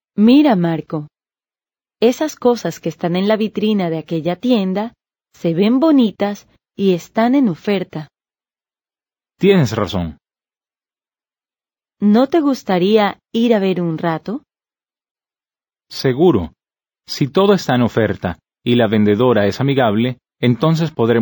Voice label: Dialogue, Spanish